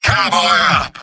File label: Engineer battle cry